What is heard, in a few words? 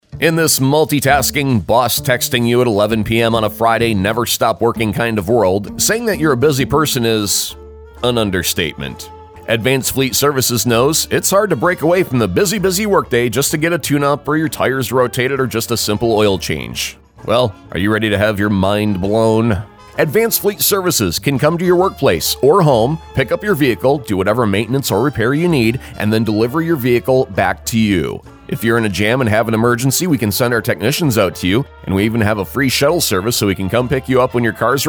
Radio ad; AFS; The Office Worker